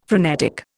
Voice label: "frenetic", Indian

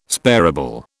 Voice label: "sparable", British

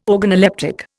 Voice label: "organoleptic", Indian

